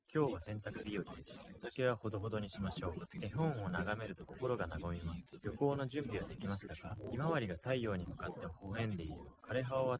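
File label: male instantaneous source; SiSEC08; SiSEC underdetermined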